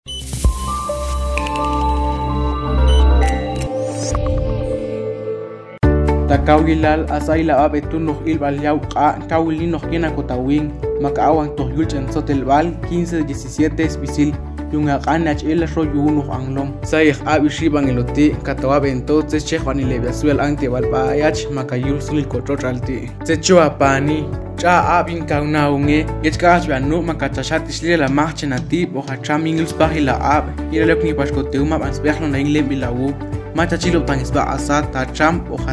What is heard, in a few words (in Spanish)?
Spot Radial Popti